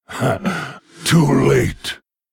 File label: Male Lich Mirror Start